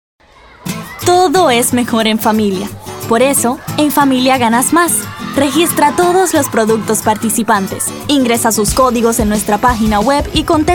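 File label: Latin American Spanish Commercial; voice demos; GoLocalise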